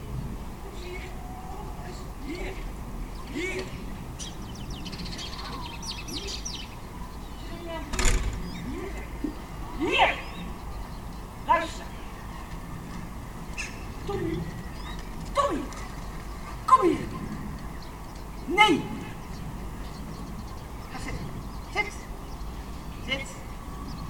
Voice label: Woman Angry At Dog

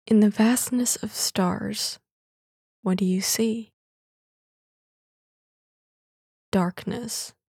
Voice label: Dark Spoken Word Female Vocal

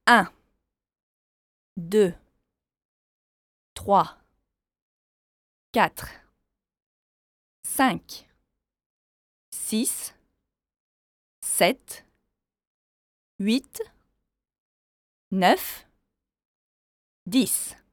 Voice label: Numbers In French

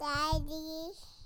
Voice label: Daddy Baby Talk

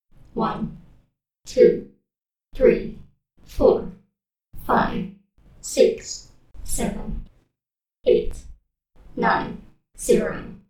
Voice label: Female Android Numbers

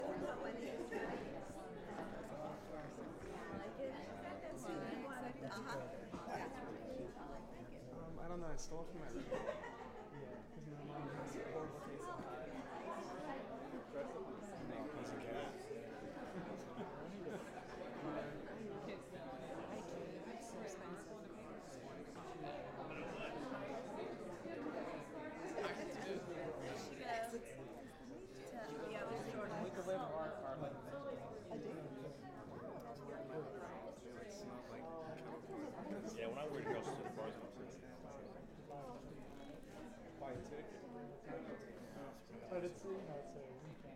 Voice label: Small Crowd Chatter

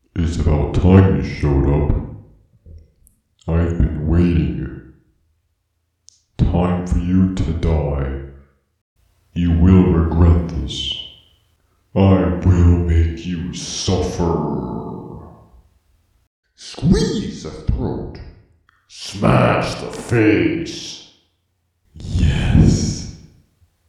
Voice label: Creepy Man Talking

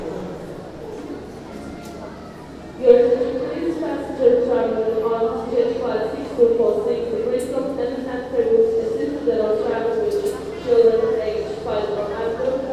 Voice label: Airport Boarding Announcement

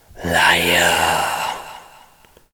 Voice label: Liar Voice

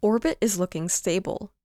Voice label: Female Astronaut Vocal Sample

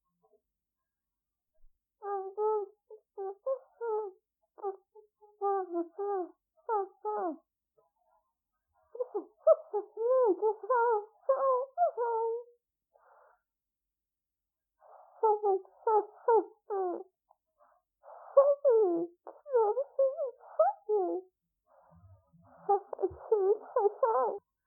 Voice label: Spirit voices Muffled Girl

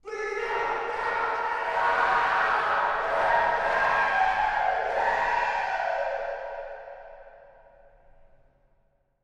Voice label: Please No Death Scream

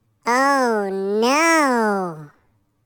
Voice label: Chipmunk Voice "Oh No"

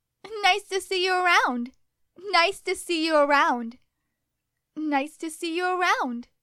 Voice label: Nice To See You Around Happy

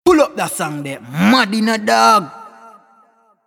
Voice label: Dancehall Pull Up Vocal

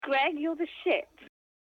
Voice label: Word Vocal female

